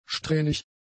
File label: "strähnig", German; adjectives